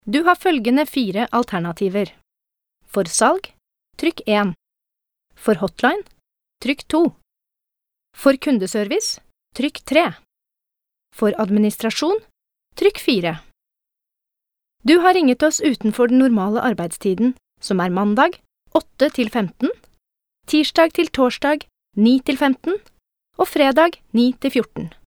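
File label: Norwegian IVR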